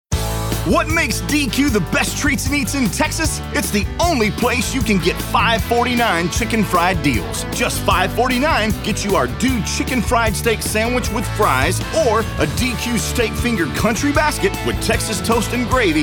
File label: English Radio